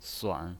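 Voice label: "suan", Mandarin Chinese pinyin, third tone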